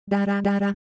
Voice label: Japanese, ideophones; Motion

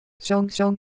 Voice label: Korean Motion; Ideo Phones

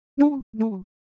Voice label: Japanese, Ideo Phones; Shape

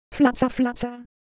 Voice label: Ewe Texture, IdeoPhones

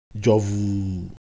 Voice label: Ewe, ideophones; Shape

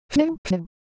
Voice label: Japanese Motion, Ideo Phones

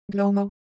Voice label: Ewe, ideophones; Shape

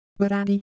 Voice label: Ewe Texture, IdeoPhones

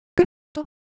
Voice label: Japanese Motion, Ideo Phones

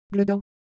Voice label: Ewe Motion, IdeoPhones

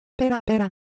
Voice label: Japanese, Ideo Phones; Shape

